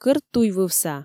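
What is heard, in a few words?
"кӧрттуйвывса", Komi, female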